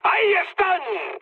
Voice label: enemy detected, mood high, speech; War Thunder